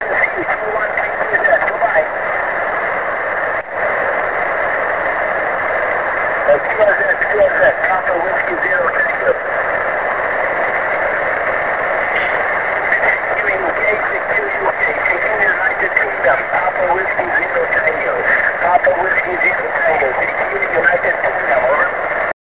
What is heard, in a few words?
ssb, CQ